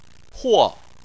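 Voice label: "huo" fourth tone, Chinese; Chinese Surnames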